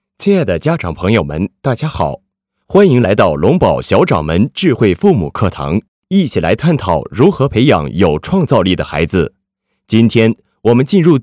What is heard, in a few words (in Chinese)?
男79 试音; 实惠大气; 给孩子好问